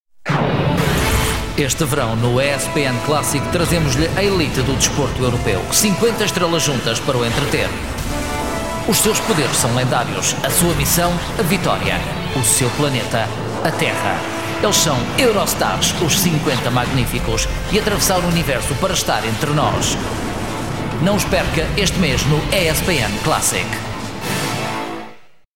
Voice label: PT TV trailer